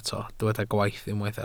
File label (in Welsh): "gwaith" Welsh